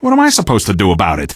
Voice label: answer, male; Garry's Mod; hl2